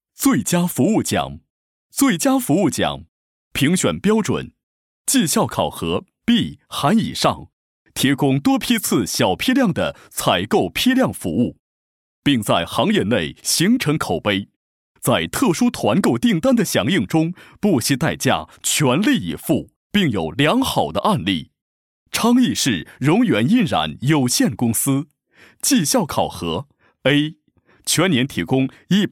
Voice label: 男 颁奖 供应商颁奖视频配音; 梦洁

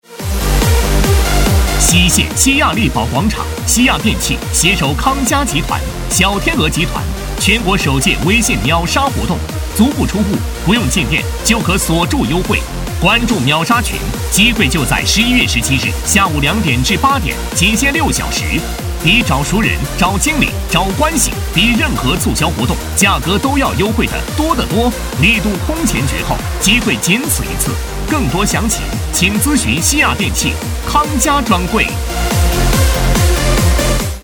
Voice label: 男 促销广告 电器活动秒杀

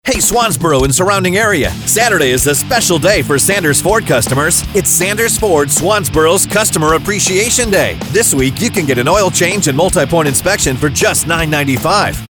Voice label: 外籍男 商业广告 Commercial

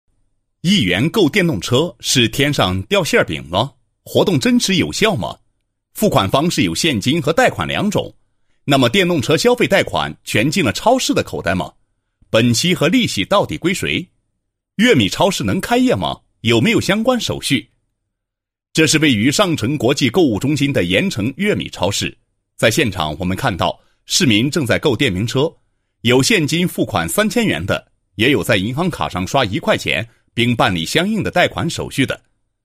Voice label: 男, 新闻; 新闻调查 1元购电动车

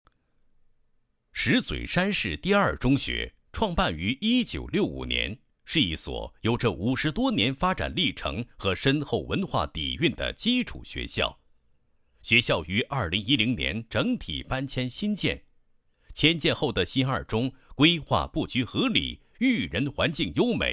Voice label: 男, 公益 禁毒专题片解说; 石嘴山市第二中学禁毒专题片解说

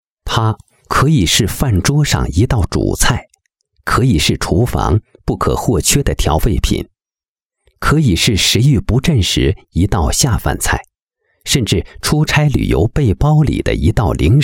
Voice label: 男, 黑猪肉酱

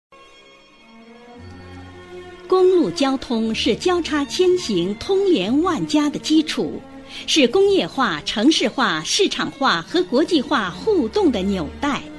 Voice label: 女, 专题, 国语女声, 大气抒情专题; 淮安公路十一五发展回眸

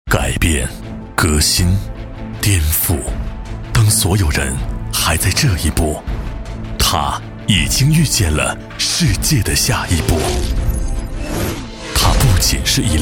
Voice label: 男60号 广告; 梅赛德斯奔驰S级; 国语男声配音; 交广主播

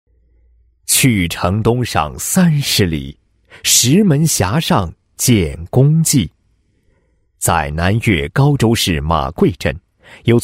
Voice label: 男 历史文化 电视宣传片, 配音案例; 杨公故里 马贵

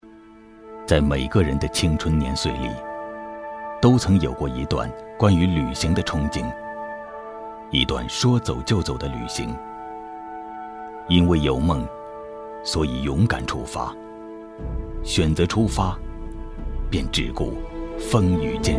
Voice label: 男 纪录片; 寻迹

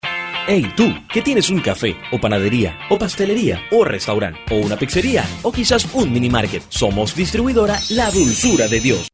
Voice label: 西班牙语男 宣传片 活力激情

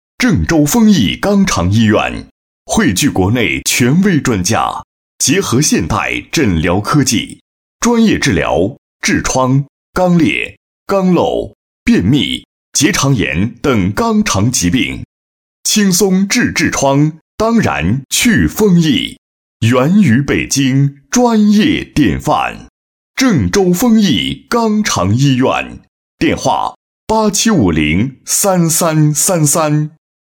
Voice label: 男80 直销 医院; 郑州医院; 央视农广

